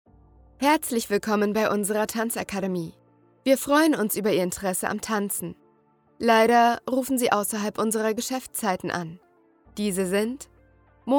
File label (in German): hoerprobe anrufbeantworter